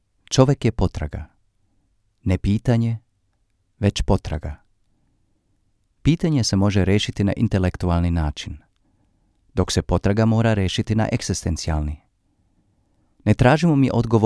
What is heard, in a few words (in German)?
Hoerbuch Serbisch